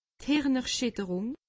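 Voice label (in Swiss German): "hirnerschitterung", Alsatian; medecine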